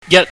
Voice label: "yat", Chinese